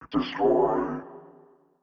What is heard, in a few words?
Alien Vox Destroy; Cali Crazed Beats; Alien Sound Effects